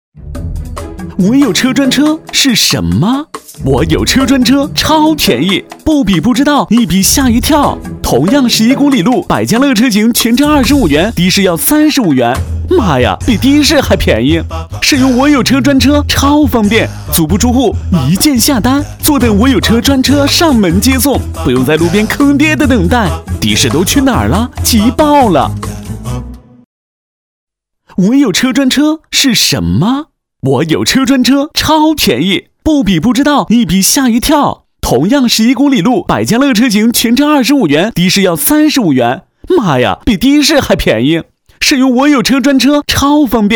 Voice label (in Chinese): 男 广告 时尚活力; 我有车